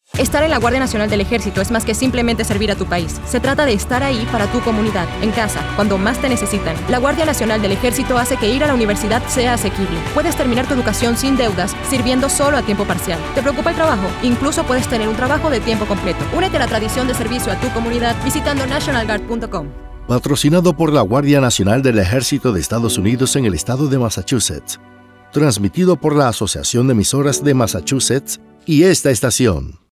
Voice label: Radio Spanish